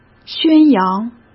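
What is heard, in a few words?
"宣扬", Mandarin Chinese; hsk; chinese-flashcards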